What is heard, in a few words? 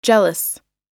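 "jealous", US English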